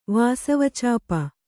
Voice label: "ವಾಸವಚಾಪ", Kannada

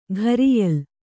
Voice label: "ಕರಿಯಾಲೆ", Kannada

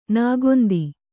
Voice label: "ನಾಗೊಂದಿ", Kannada

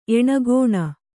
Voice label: "ಏಣಗೋಣ", Kannada

